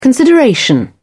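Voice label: "consideration", English, British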